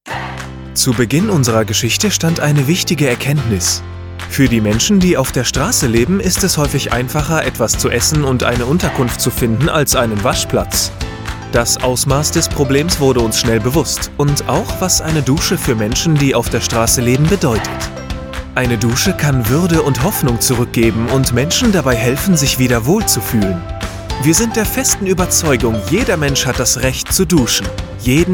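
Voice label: corporativo, German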